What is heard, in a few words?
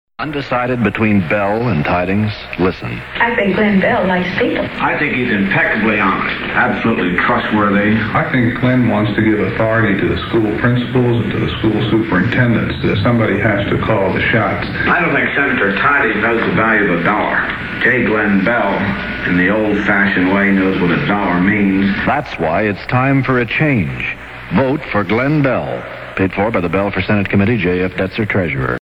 spot; 1970 fall; bell for senate